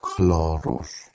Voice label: "khloros" Ancient Greek

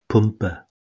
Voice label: "pumpe", Oscan

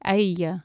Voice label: "ege", Anglo Saxon

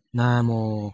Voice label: "namo", Proto Germanic

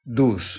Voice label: "durz", Proto-Germanic

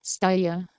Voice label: "staeger", Anglo Saxon

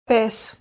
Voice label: "pese", Albanian

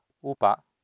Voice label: "upa", Sanskrit

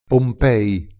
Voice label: "Pompei", Italian

